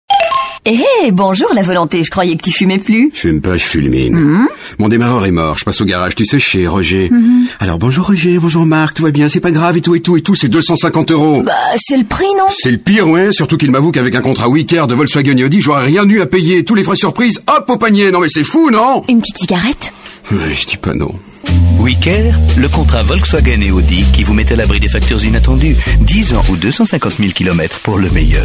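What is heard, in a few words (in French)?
Belgian French, male, commercial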